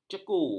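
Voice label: "ceku", Malay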